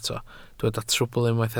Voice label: "trwbl", Welsh

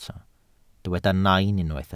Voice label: "nain", Welsh

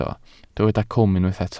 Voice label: "cwm", Welsh

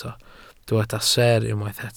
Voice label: "seer", Welsh

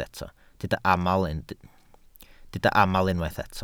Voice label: "aml", Welsh

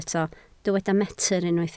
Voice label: "metr", Welsh

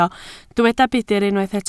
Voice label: "budr", Welsh

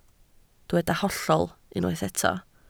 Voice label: "hollol", Welsh

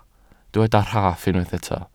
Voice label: "rhaff", Welsh

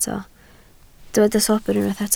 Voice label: "sobr", Welsh

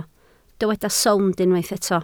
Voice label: "sownd", Welsh

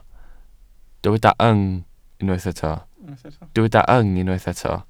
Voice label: "yng", Welsh

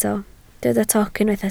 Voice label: "toc", Welsh

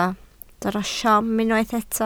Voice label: "siom", Welsh

